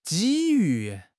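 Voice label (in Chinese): "机遇", Chinese; HSK6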